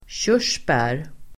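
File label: "köra", Swedish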